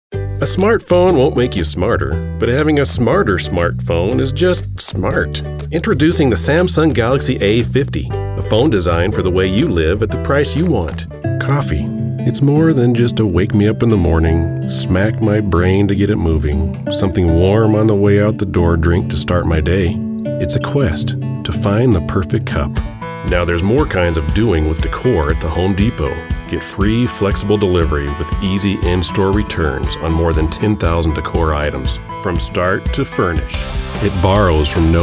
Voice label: voiceover commercial